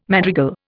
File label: "madrigal", Indian